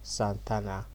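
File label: "santana", Nigerian Pidgin, male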